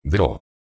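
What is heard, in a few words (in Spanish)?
"dro", Spanish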